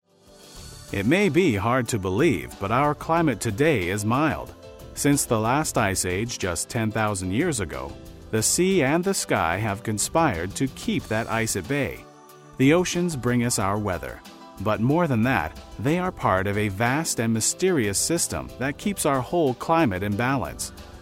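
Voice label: narration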